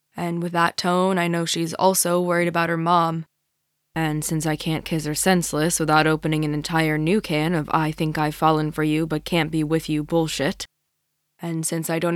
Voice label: audiobook excerpt